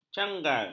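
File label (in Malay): "canggal", Malay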